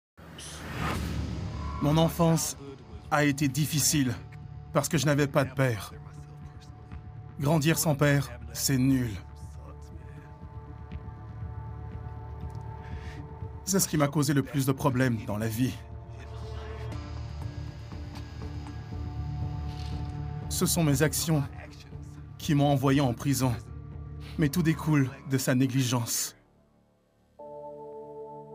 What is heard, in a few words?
Voice Over